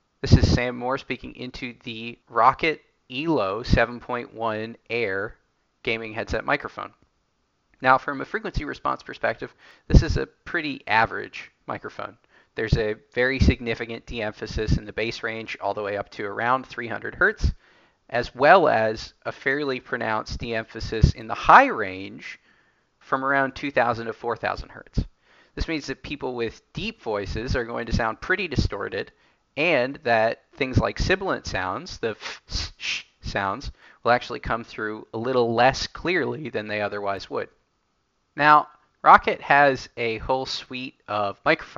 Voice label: mic sample; Roccat Elo 7.1 Air